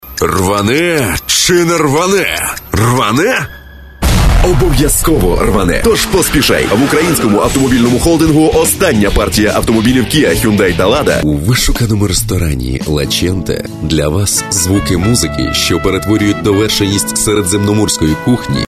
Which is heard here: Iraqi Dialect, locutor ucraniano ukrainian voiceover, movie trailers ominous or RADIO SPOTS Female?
locutor ucraniano ukrainian voiceover